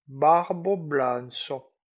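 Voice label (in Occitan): "barbaclancha", Limousin; Prêts pour l’Òc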